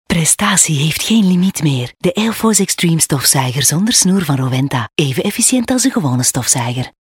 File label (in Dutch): soft; leise; Rowenta